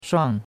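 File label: "shuang4", Mandarin Chinese; Cours-de-Chinois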